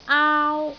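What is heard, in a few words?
"aau1", Cantonese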